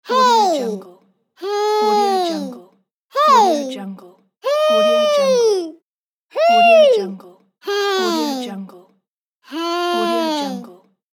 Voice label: cartoon voice "hey"